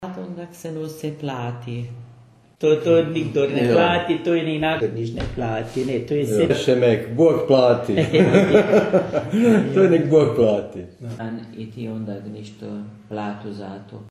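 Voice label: Long and rising a: pláti 'he pays', dialect of Petrovo Selo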